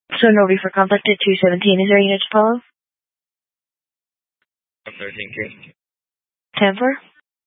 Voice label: Police Dispatch